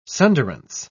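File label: "sunderance", English, uk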